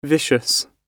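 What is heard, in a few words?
"vicious", British English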